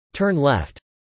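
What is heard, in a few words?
"turn left" spoken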